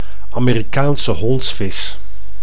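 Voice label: "Amerikaanse hondsvis", Dutch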